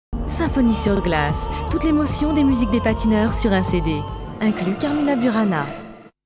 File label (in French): PUB TV; Album SYMPHONIE SUR GLACE